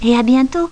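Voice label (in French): "bientot", French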